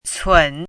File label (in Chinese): "cun3", 汉字语音; chinese-voice